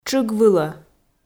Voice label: "тшыг вылӧ", Komi

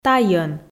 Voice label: "тайӧн", Komi

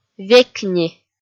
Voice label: "векни" kpv f

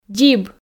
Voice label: "дзиб", Komi

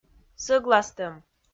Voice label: "сӧгластӧм", Komi

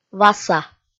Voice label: "васа" kpv f

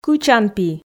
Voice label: "кычанпи" Komi

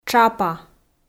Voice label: "тшапа", Komi

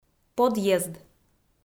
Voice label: "подъезд", Komi

